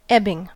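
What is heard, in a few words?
"ebbing", American English; pronunciation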